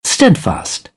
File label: "steadfast", British; æ - ɑ; English